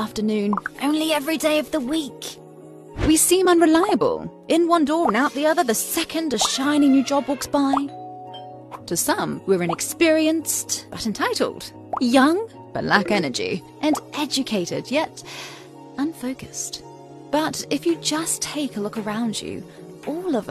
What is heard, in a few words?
Quirky Millennial; en-AU